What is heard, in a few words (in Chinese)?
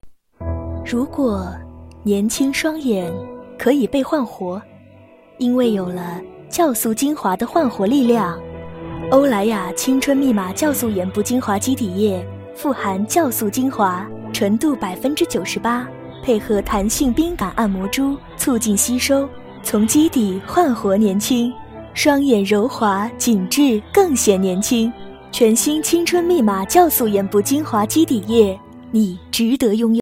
知性女声; 巴黎欧莱雅